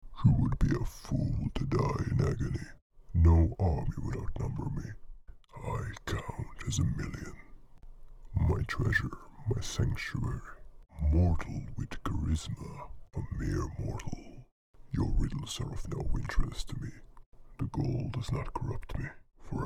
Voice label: Dragon Narration

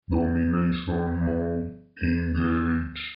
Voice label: robot voice

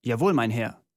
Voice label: German voice; wyrmsun

